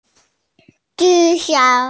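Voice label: "jǔ shǒu", Mandarin Chinese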